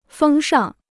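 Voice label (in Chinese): "风尚" Chinese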